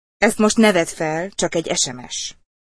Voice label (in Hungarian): Ezt most ne vedd fel (nő), sms csengőhang